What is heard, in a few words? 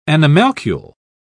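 "animalcule", British English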